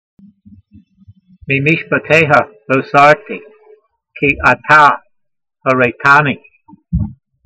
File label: voice, hebrew language; Psalm 119:102; Meemeeshpateyha lo-sartee, kee-ata horayta'nee